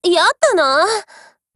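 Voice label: BREAK Japanese, Voice; GF Alarm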